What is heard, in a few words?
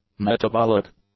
"metabolic", english; adjectives